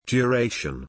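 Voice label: "duration", English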